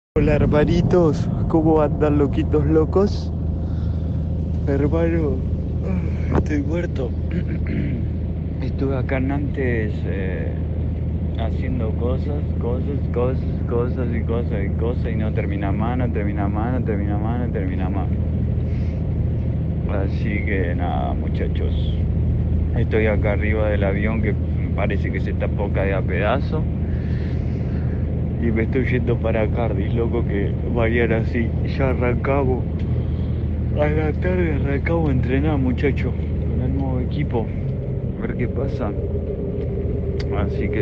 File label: Message WhatsApp; Emiliano Sala; aviation